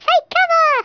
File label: "take cover", English